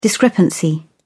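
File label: "discrepancy", British English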